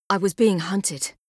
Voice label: Female; Skyrim Special Edition; Dawnguard Beleval